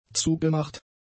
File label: "zugemacht", German; adjectives